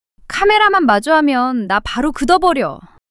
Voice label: fluster; monologue